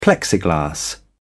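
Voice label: "plexiglas", British English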